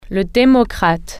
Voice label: democrat, french